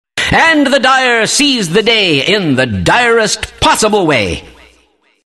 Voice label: announcer victory; Kleiner; dire

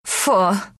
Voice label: anger, ru; Lina; Dota 2

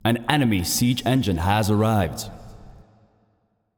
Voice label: Announcer Match Siege Enemy Arrived; Feyrazzle

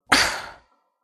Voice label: anger; Crystal Maiden

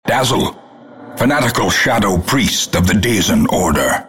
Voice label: announcer hero announced

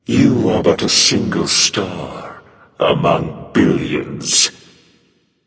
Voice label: Taunt Directed; Scifi Anubis; Ra; Smite

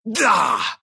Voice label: win, ru; dota2

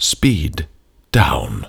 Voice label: Speed Down Voiceover; bindingofisaacre

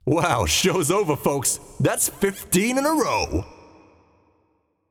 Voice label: Announcer Spree Annihilation; paladins

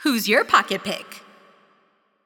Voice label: Announcer Draft Your Pick; Paladins; Le Tigress

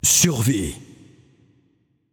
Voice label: Announcer French Mode Survival

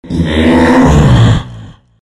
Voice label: anger; Outworld Destroyer

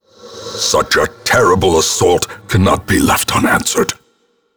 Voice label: Narration crit; Darkest Dungeon

